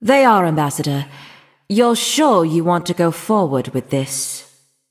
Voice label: Female Nightborne